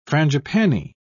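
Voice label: "frangipani", English, British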